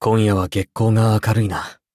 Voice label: levelup ja; Cookie Run